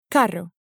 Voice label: "carro", Spanish